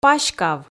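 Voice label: "паськав", Komi, female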